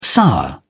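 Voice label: "sour", British English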